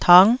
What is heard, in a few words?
"tang9", Taiwanese